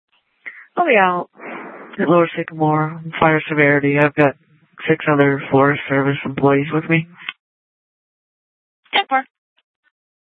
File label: Sheriff, scanner P25